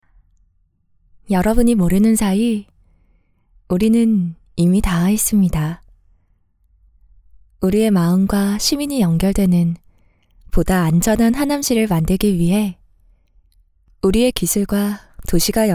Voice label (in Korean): 나레이션 CCTV통합관제센터 홍보 NA 청량한; 하남시